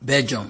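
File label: "begium", Nigerian Pidgin, male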